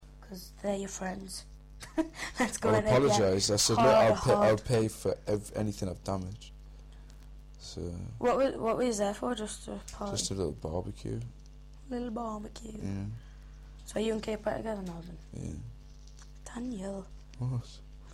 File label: younger, dialects; Newcastle; younger speakers